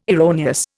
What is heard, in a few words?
"erroneous", Indian